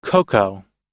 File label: "cocoa", us; ココア、ココア 1 杯